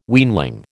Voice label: "weanling", American

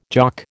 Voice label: "Jock", American

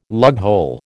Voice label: "lughole", American

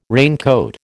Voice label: "raincoat", American

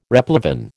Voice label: "replevin", American

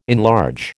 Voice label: "enlarge", American

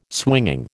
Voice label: "swinging", American

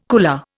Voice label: "cooler", Indian

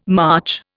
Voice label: "March", Indian

